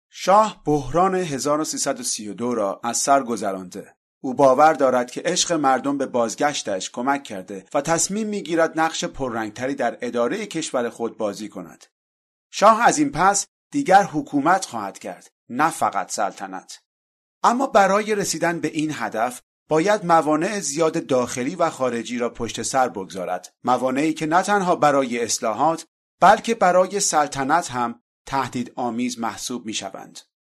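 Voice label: Farsi, male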